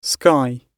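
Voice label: "sky", British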